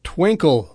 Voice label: "twinkle", English